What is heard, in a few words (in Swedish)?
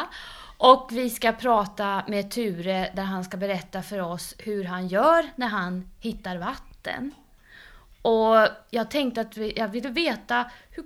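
Härkeberga Uppland, Svenska sagor, dialekter; Folkminnen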